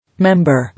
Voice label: "Member", English